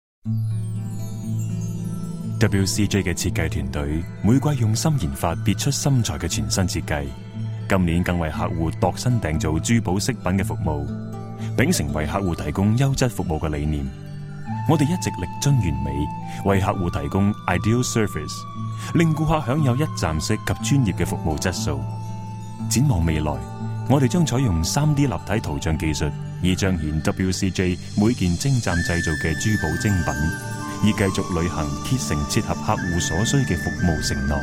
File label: Jewelry TVC